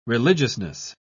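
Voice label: "religiousness", British English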